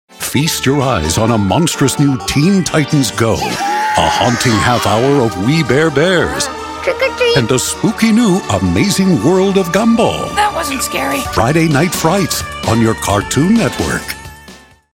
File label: Promo campy halloween funny comedy surprise; Cartoon Network